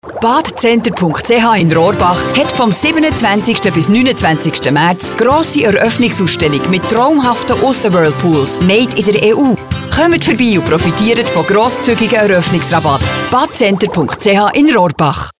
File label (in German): radio werbespot; radio32; badcenter